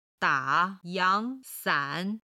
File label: "da3yang2san3", Chinese